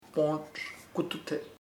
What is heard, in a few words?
cloudy, chamacoco; nublado